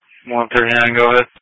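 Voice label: Police Patrol Dispatch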